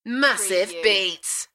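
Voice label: British female Massive beats; beanstalk audio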